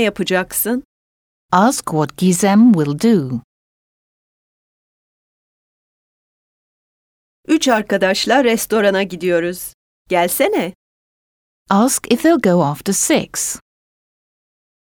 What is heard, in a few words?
Speaking, Turkish